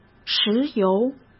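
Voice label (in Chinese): "石油", Mandarin Chinese; chinese-flashcards; hsk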